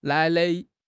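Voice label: "nai3 nai5", Mandarin Chinese; HSK3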